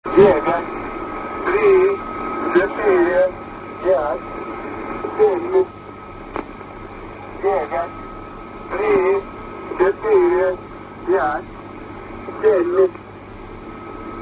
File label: male